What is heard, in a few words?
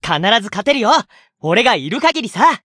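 Voice variable chant, Japanese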